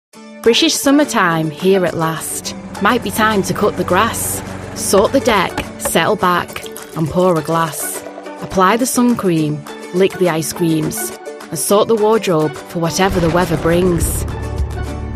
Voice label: Commercial